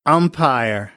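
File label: "umpire", American, English; AmericanBritish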